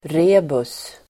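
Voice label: "rebus", Swedish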